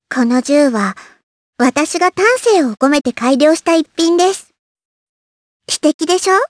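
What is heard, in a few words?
voice, Japanese; Hanus; kingsraid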